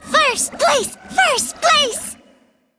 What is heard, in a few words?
Female Brew Elemental First Place